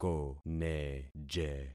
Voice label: "koneje", concat syllables; Affixing experiment